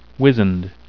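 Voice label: "wizened", English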